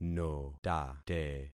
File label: "notate", concat syllables; Affixing experiment